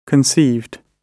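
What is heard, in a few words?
"conceived", British English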